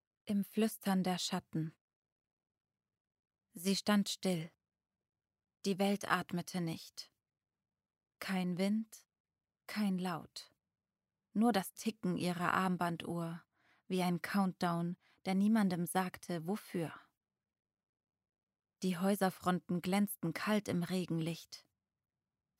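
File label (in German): Psychothriller, Sprecherin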